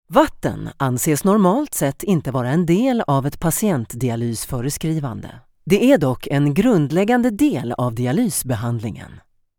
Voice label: Swedish corporate videos